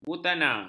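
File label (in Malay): "butana", Malay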